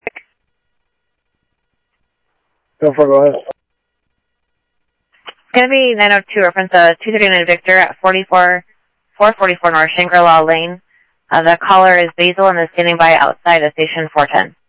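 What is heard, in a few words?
Sheriff East County, scanner audio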